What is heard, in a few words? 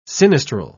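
"sinistral", British English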